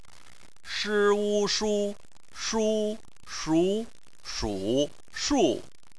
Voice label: "shu", chinese